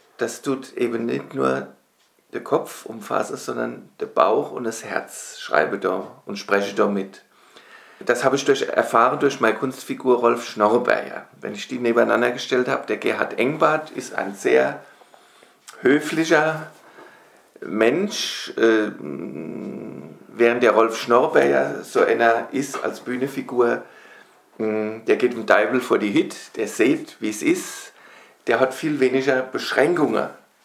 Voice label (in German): schreiben in mundart